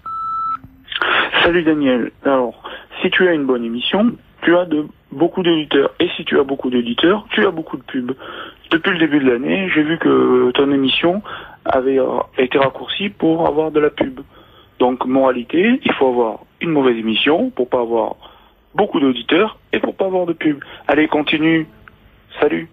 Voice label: Pubs France Inter, repondeur

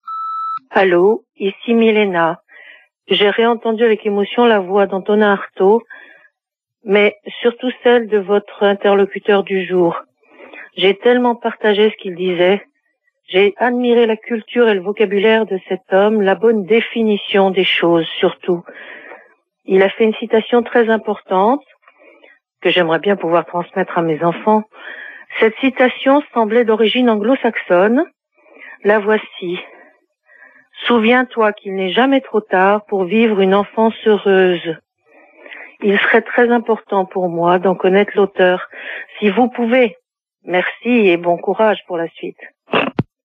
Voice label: Psy mobile repondeur

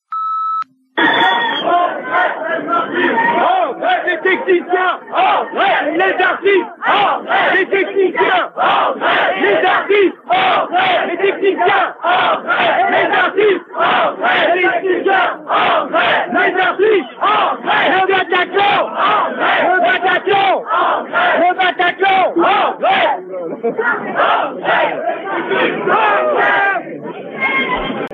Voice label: Intermittents repondeur

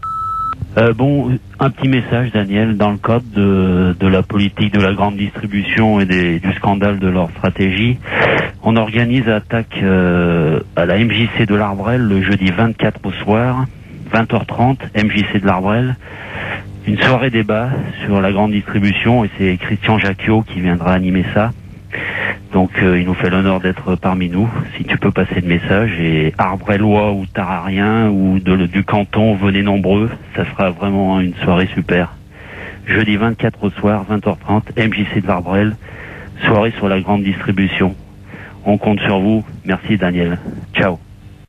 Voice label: repondeur; grande distribution

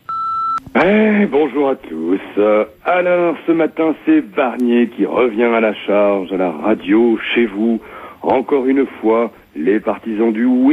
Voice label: repondeur; Victoire du Non

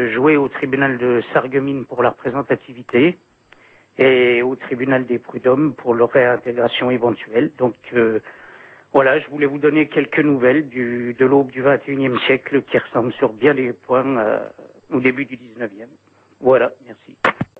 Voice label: Syndicat repondeur

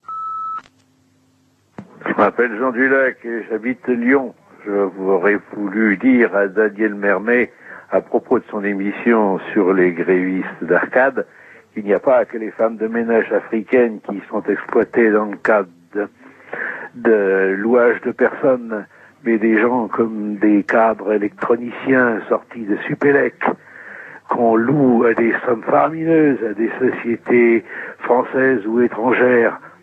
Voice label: répondeur; ACCOR

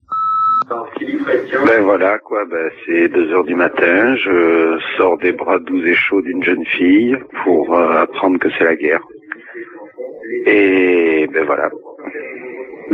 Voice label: Irak repondeur

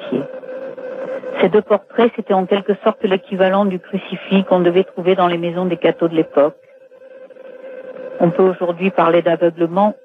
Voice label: Mort Staline repondeur

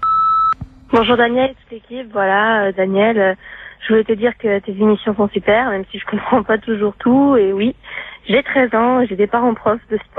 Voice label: Jeune fan répondeur; Jeune fan de La-bas

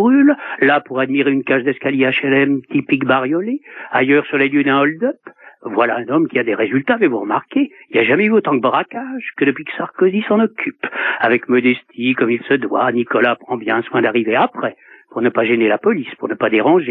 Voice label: Gouvernement modeste repondeur

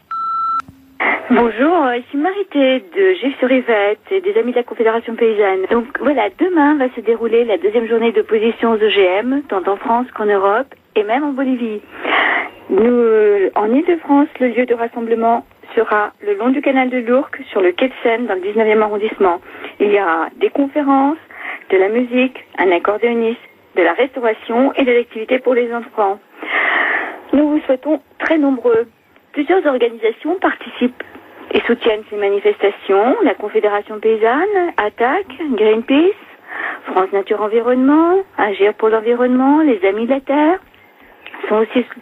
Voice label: repondeur; Lutte contre OGM

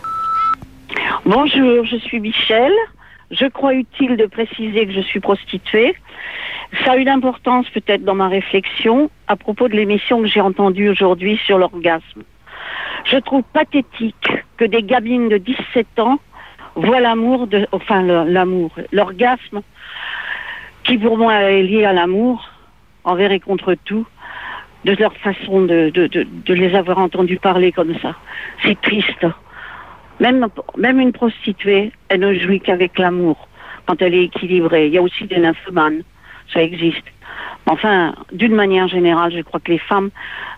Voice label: repondeur; Orgasme feminin et amour

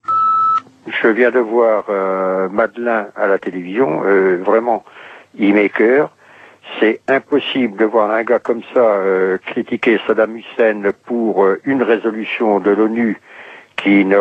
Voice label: Irak repondeur; Madelin

